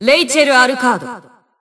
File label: System Voice; BBCP; Bullet